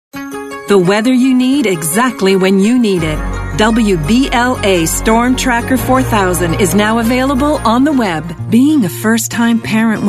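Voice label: Canadian English, female, commercials; voice over; accent of canada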